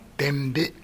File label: "denbi", minamiyamagata